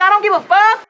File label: "i don't give a fuck", girl; Voice; expletives